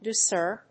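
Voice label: "douceur", English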